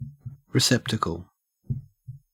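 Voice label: "receptacle", Australian; pronunciations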